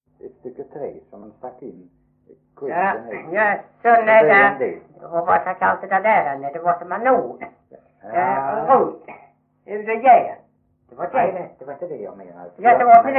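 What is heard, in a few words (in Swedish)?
Veddige Halland, Svenska sagor dialekter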